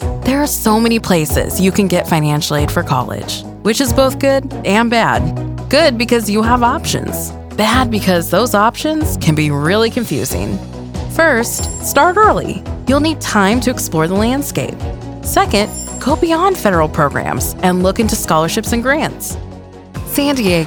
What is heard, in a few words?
Narration